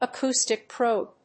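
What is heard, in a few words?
"acoustic probe", English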